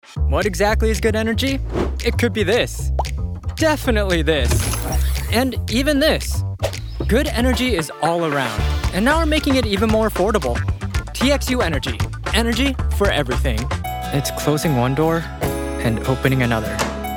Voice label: Commercial